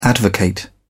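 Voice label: "advocate", British English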